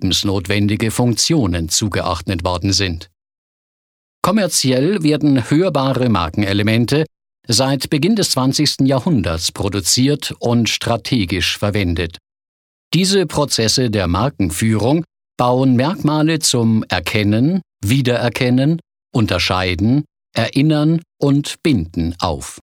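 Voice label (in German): Kommentar Akustische Markenfuehrung Neutral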